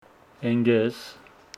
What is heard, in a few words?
Homshetsi my friend